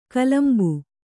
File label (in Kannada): "ಕಲಂಬು", Kannada